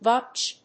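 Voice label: "PVC", English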